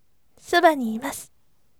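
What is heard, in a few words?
"sobaniiru", gentle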